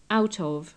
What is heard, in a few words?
"out of", English